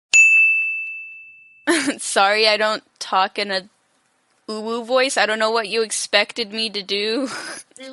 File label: good boy voice actor